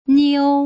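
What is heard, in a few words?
"niu", Mandarin Chinese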